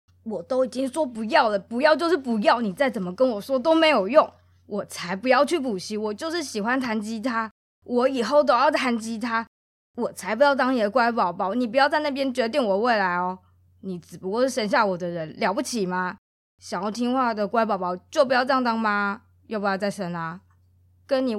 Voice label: boy

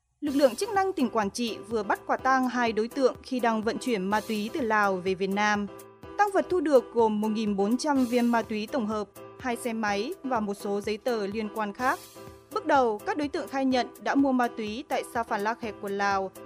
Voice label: news

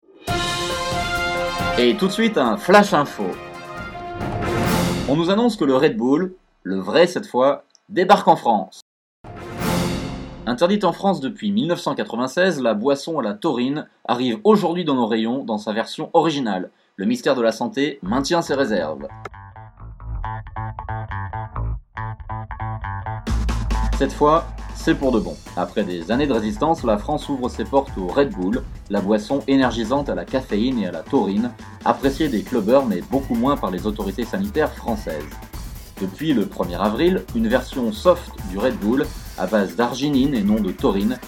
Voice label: fr

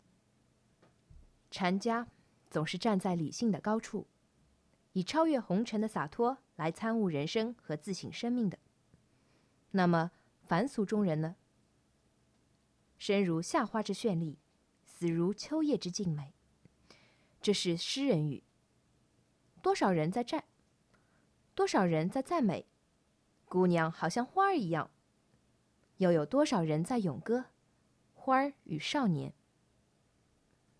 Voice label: poem

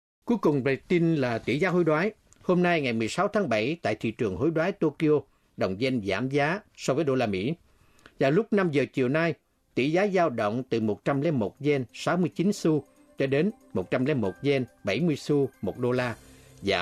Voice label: news

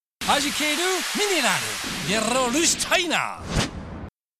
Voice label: tv, ja

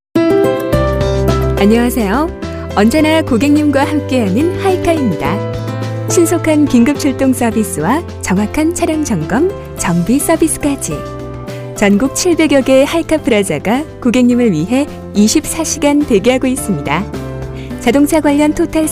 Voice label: korean f

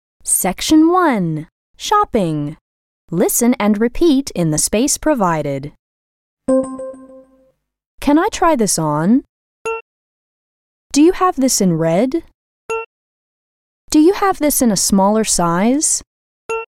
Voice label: edu

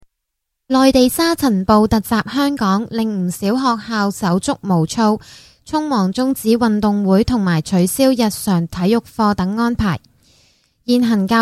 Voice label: news

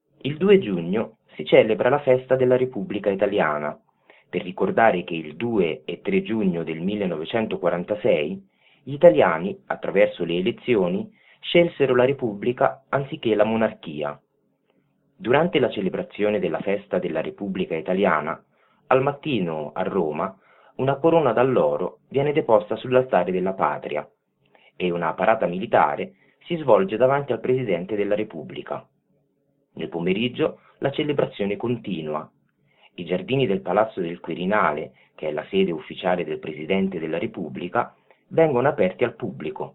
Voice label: edu

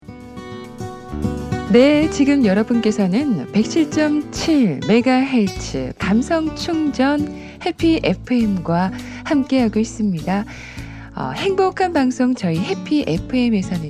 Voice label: dj

